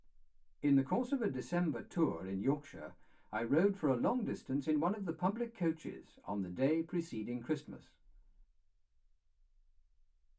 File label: male speech english